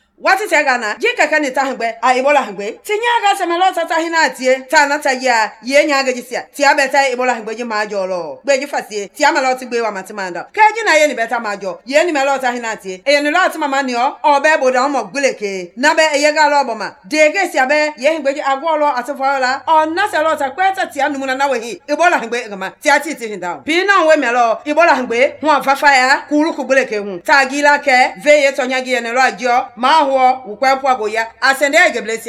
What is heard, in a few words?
Mende; ebola; radio spots